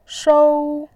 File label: "shou1", voice